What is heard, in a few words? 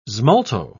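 "smalto", English, British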